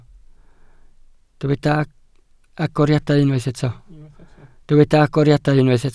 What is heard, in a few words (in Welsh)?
"agoriadau", Welsh